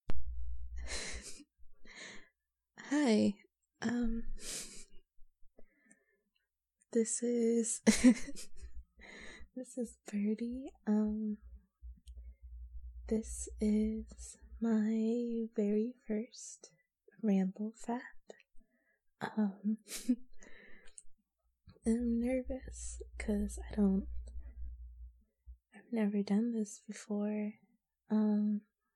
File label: F4A, moaning, giggling, slight cursing; A Nervous First; first time